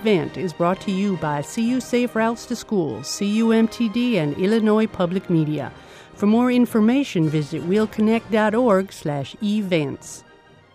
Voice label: bike rodeo spot am